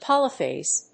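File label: "poly-phase", English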